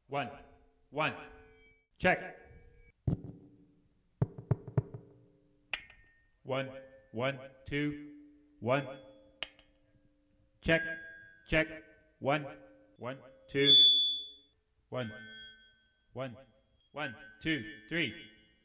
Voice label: Sound Check check 1 2, static voices